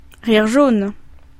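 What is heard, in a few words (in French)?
"rire jaune", French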